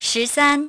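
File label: "ten three", English; iOS 4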